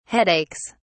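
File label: "headaches", British English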